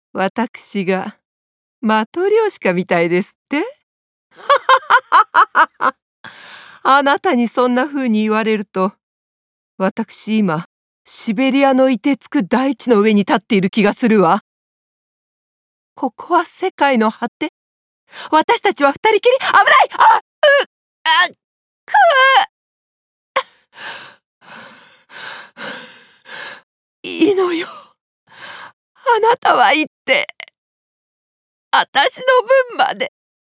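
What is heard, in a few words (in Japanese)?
舞台セリフ, 栃木弁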